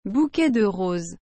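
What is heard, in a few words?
"bouquet de roses", French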